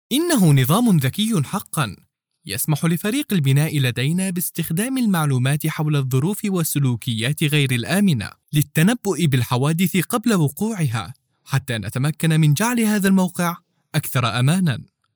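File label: Arabic, Saudi Arabia, male, voice over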